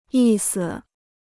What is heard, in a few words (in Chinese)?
"意思", Chinese